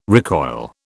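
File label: "recoil", British English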